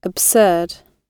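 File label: "absurd", British English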